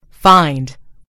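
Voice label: "find", American English; gcompris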